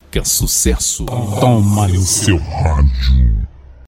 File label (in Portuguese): vinheta que sucesso toma no seu radio sem fundo voz